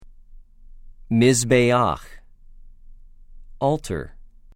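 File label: "מִזְבֵּחַ", Hebrew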